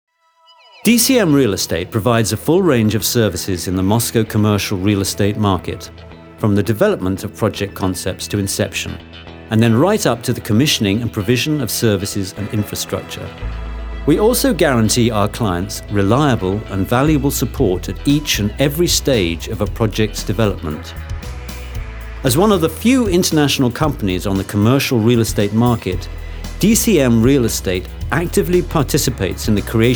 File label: british english male